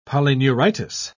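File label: "polyneuritis", British English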